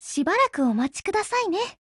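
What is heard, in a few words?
CAR OPERATION BEGIN Japanese; GF Alarm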